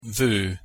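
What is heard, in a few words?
"vo", Hungarian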